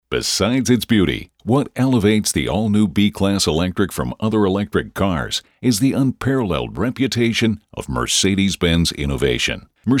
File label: E Learning